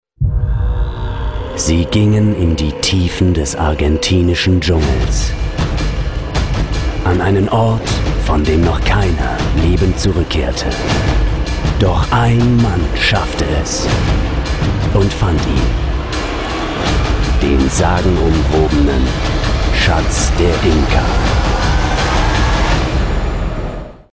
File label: trailer kurz